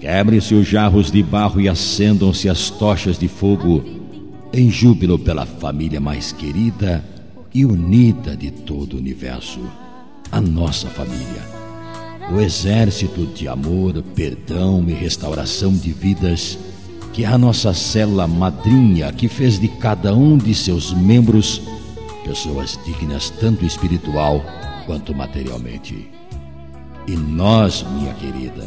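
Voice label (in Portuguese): Evangélica Bodas de Ouro, male, telemensagens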